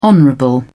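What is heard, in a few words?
"honorable", English, British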